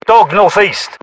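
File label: threat dog northeast, British; battlechatter; localized english; uk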